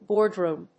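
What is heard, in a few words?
"boardroom", English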